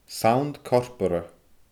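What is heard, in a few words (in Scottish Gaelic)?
"sannt corporra", Scottish Gaelic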